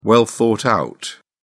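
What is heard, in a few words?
"well thought out", British English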